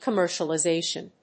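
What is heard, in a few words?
"commercialization", English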